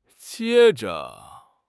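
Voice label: "jie1 zhe5", Chinese; 汉语口语新时代-初级下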